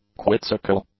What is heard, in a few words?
"quizzical", English; adjectives